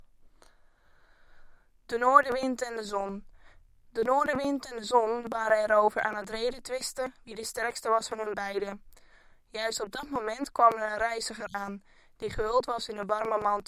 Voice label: Pulse Burg Resynthesis, North Wind and the Sun; VowelBender

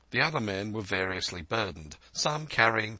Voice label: LPC Robust Resynthesis; VowelBender; Source Formant examples

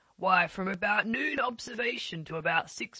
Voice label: LPC SL Resynthesis; VowelBender; Source Formant examples

